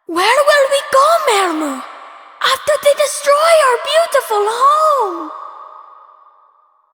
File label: Child; Ostron; Warframe; Cetus Invasion; Villagers; en